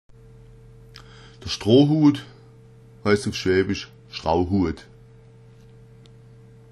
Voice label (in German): straohuat, schwaebisch